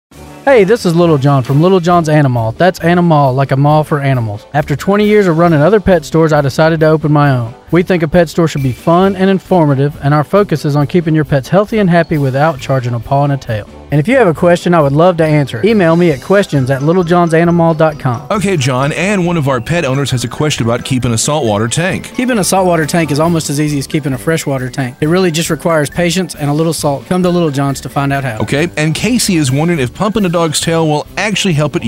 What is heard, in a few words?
commercial